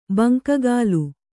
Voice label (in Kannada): "ಬಂಕಗಾಲು", Kannada